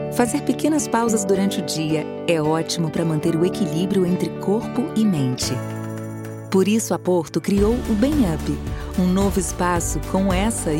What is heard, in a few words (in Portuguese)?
Loc Acolhedora Suave Locutora